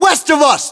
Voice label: direction relative west, battlechatter us

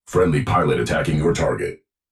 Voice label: friendly attacking target, Titan, EN, Voice; Titanfall 2; Legion

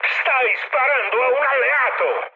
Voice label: player friendly fire mood high, speech it; War Thunder

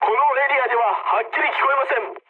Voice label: jp chat, speech jp

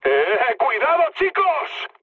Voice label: near waypoint, mood high, speech; War Thunder

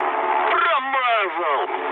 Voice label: bomb failure, mood low, speech ru; War Thunder

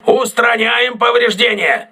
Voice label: voice message repairing, crew ships russian

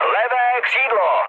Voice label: left wing, speech cze, mood med; War Thunder

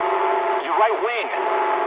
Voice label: eng right wing mood low, speech eng; War Thunder

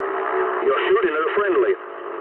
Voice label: eng player friendly fire mood low, speech eng

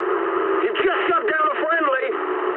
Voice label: eng player killed ally mood high, speech eng

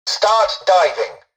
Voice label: tutorial dialogue, english; War Thunder

